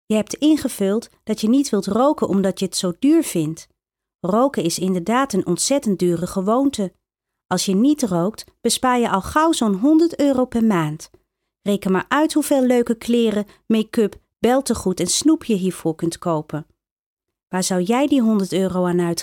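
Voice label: Dutch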